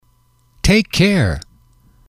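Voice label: "take care", English, male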